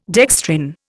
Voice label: "dextrin", Indian